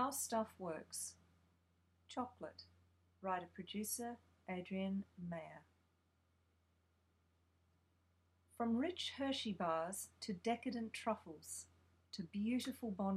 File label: documentary vo; chocolate; VOICE ACTING